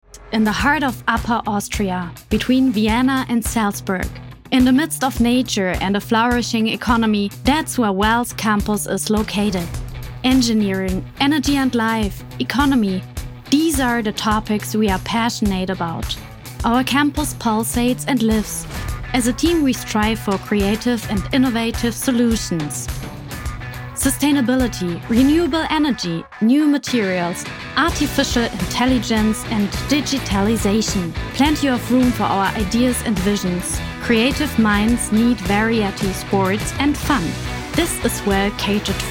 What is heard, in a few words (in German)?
Onlinespot englisch; Campusvideo Wels